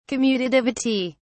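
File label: "commutativity", British English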